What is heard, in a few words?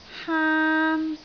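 "haan1", Cantonese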